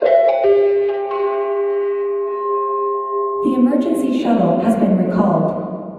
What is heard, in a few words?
shuttle recalled, Announcements; OldThink